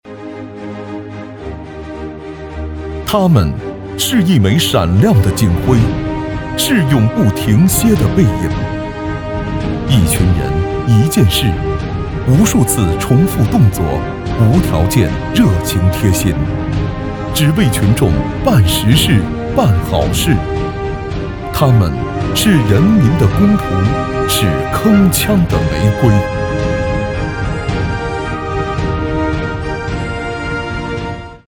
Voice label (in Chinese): 大气深情公安服务